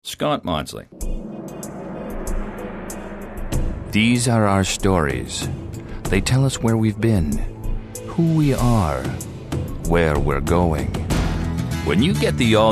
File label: commercial male